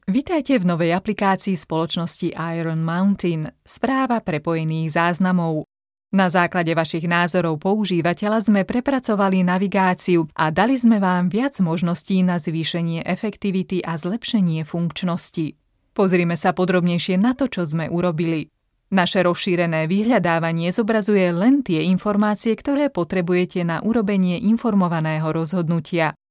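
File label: Slovak, E-Learning; GoLocalise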